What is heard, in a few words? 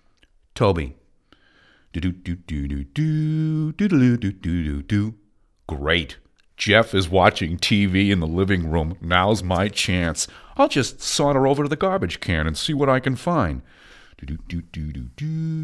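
US English, Cartoon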